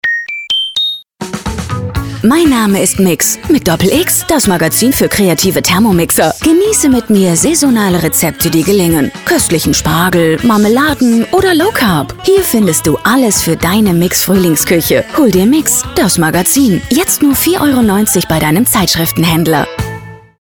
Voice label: radiospot